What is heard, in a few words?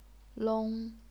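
"long", first tone, chinese pinyin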